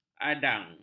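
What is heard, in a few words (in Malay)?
"adang", Malay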